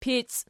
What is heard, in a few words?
"pici", Archi